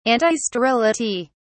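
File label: "antisterility", British English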